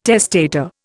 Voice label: "testator", Indian